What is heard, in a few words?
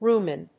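"rumen", English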